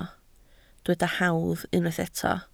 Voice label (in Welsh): "hawdd" Welsh